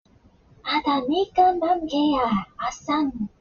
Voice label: gibberish; plague

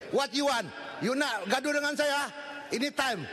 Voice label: shouts fxxk you in parliament; bung moktar

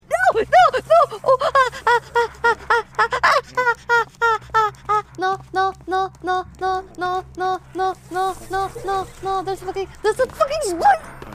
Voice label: scared no ah spider

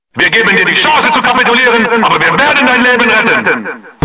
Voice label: propaganda voice

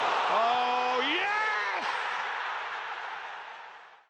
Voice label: goal with commentary; anthony martial; martin tyler

